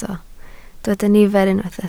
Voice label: "nifer", Welsh

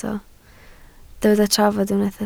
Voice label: "trafod", Welsh

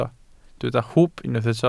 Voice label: "hwb", Welsh

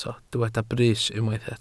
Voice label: "brys", Welsh

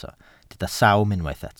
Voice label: "sawm", welsh

